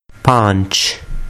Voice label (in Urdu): "paanch", Urdu